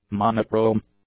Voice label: "monochrome", English; adjectives

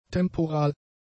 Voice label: "temporal", German; adjectives

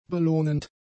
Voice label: "belohnend", German; adjectives

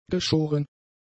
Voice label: "geschoren", German; adjectives

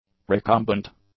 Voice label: "recumbent", English; adjectives

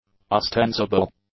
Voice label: "ostensible", English; adjectives

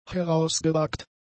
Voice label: "herausgewagt", German; adjectives

